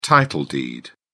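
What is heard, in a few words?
"title deed", British English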